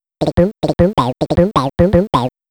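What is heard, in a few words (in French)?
Voix chump, cartoonesque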